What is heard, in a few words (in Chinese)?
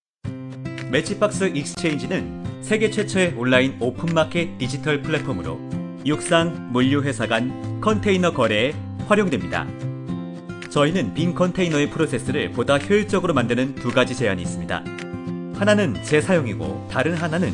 韩语男 外语 小语种 科技